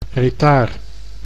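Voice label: "retard", Dutch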